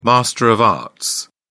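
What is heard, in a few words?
"master of arts", British English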